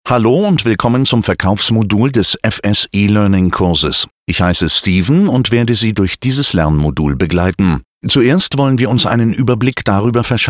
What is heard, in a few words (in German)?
elearning avatar; MESSUMFORMER; SIEMENS